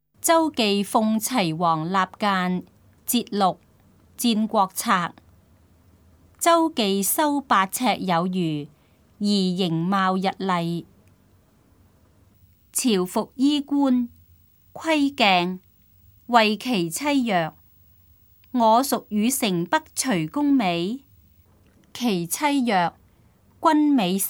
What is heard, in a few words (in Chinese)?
cantonese; 鄒忌諷齊王納諫（節錄）; 粵語